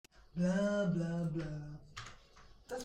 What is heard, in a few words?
blablabla office talk